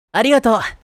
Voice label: Thank you anime boy character, japanese voice over